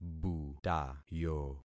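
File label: "butayo", concatenated syllables; Affixing experiment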